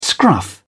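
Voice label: "scruff", British English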